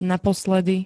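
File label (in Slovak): "naposledy", Slovak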